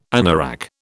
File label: "anorak", British